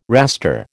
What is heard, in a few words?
"raster", American